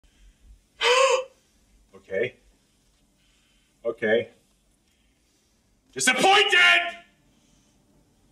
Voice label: okay okay disappointed